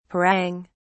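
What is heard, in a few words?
"parang", British English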